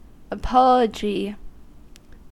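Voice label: "apology", American English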